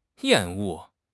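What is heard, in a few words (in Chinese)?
"厌恶", Chinese; HSK6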